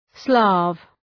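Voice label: "Slav", English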